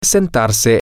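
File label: Spanish, to seat yourself, male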